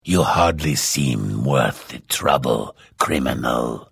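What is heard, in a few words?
Hello, Vo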